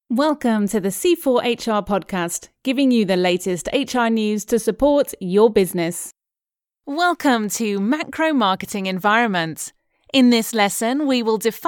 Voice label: narration training